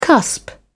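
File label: "cusp", British English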